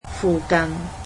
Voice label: "near", Cantonese